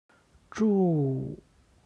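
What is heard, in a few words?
"zhu", Chinese; 住; 住む; HSK Level One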